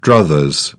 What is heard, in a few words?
"druthers", British; AmericanBritish